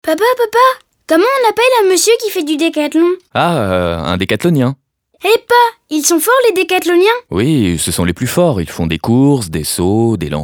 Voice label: seat radio mix